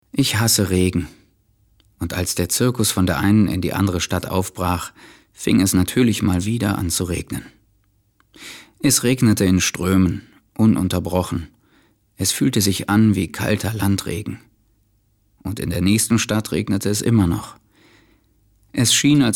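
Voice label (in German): Erzählung; LOFT VOICES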